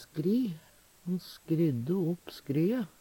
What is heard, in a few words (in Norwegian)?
"skry", Numedalsmål; Han skrydde opp skrye.; Verb